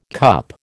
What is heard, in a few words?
"CUP", American